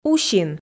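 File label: "усин", Komi, female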